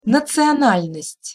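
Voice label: "nacional nost", Russian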